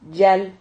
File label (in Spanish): "llal", Silabas